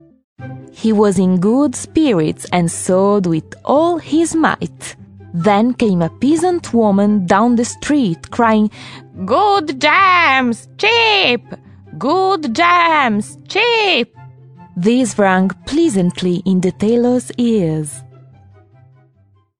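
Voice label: Italian, English accent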